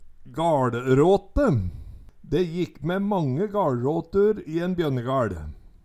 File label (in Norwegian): "gaLråte", Numedalsmål; e mange gaLråtur i en bjønnegaL